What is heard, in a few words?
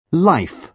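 "life", British English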